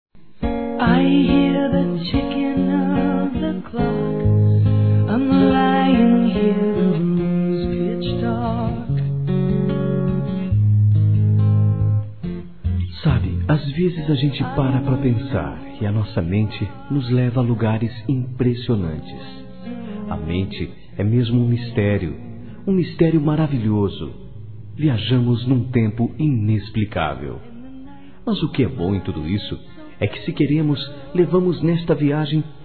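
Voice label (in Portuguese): romantico suave, Telemensagens, Românticas masculino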